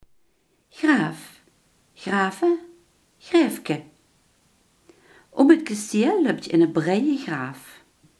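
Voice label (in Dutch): greppel, Limburgse gesproken woordenboeken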